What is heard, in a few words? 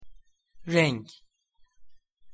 "renk", Turkish